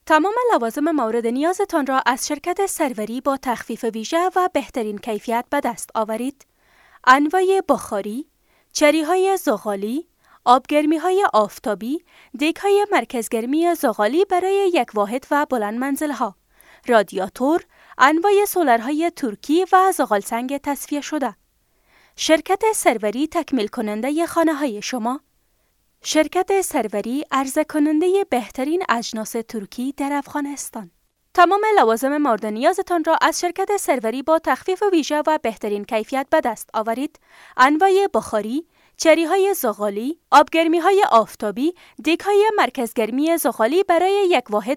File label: dari female